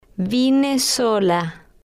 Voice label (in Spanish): "vine solo", Spanish